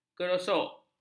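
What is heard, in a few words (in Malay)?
"kerosok", Malay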